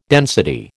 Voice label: "density", American

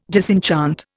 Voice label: "disenchant", Indian

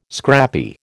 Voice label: "scrappy", American

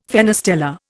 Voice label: "fenestella", Indian

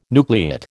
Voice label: "nucleate", American

